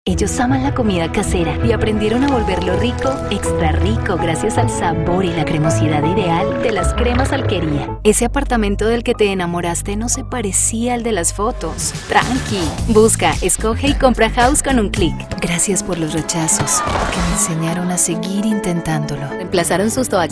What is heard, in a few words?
Spanish Colombian commercial